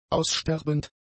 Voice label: "aussterbend", German; adjectives